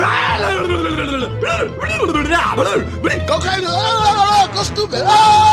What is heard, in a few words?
mario screaming; smg4